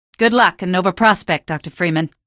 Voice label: citizen post, female; hl2; coast; odessa; Source engine

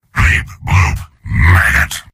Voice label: soldier robot

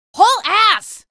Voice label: get aboard, female; video games; npc; source engine; episodic; episode 1

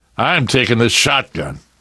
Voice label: take autoshotgun, survivor voice; source engine; left 4 dead 2; namvet

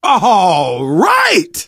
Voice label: hurrah, survivor voice

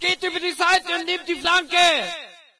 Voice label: ger flank; player; Source Engine; dod

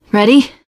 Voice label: ask ready, survivor voice teen girl; source engine; left 4 dead 2

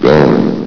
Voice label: "going", vox; Source Engine; bshift

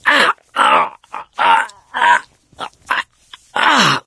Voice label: choke, survivor voice mechanic; source engine; left 4 dead 2

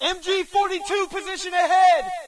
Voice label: US mg ahead; player; Source Engine; dod

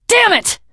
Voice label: swear, teengirl; survivor voice

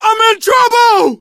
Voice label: help, survivor voice, manager; source engine; left 4 dead 2

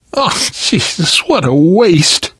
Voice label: grief teen girl, survivor voice

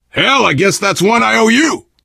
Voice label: to the rescue thanks, survivor voice biker; source engine; left 4 dead 2

